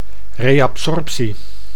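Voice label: "reabsorbtie", Dutch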